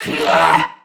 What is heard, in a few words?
Threatened Crewmen; Dialog; Barks; Battle; Warframe; CorpusCrewmen; CrewmanD